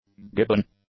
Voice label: "gibbon", English